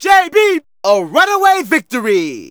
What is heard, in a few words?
Narration; Ollie King